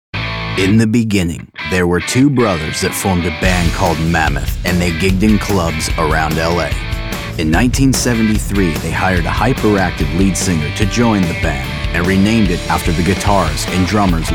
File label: Narration